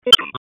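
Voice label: Raleigh Police North District Tactical; wake; p25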